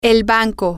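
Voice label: the bank, Spanish, female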